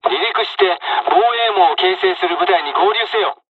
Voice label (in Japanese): takeoff, dialogs japanese; War Thunder